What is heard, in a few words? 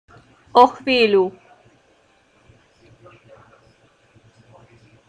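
"o chvíľu", Slovak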